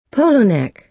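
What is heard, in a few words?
"polo neck", American English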